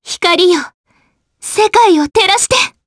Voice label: Vox Skill jp; Frey; kingsraid